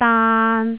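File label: "daan3", Jyutping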